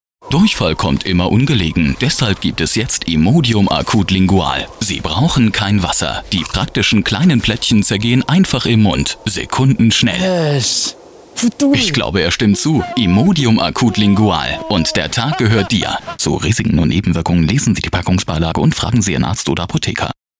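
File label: German, male, narration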